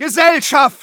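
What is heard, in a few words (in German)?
incoming, German; Medic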